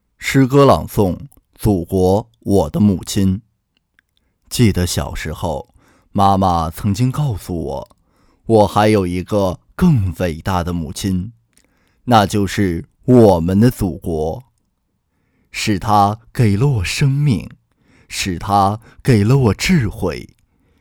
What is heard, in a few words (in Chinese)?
诗歌朗诵; 祖国我的母亲